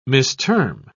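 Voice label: "misterm", British English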